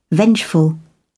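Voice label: "vengeful", British English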